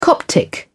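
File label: "coptic", British English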